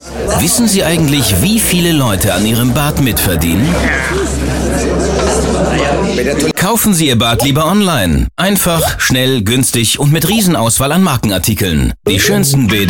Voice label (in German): werbung; Reuter